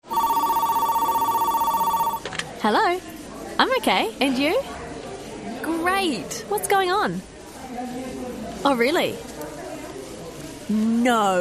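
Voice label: NEW ZEALAND, female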